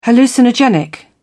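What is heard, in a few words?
"hallucinogenic", British English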